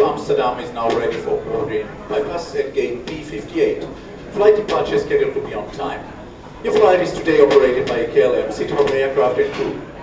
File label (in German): Flug, Flughafen Ansagen; KLM